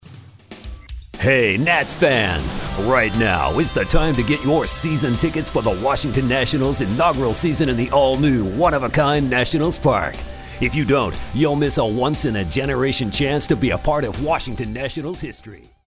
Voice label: sportscasters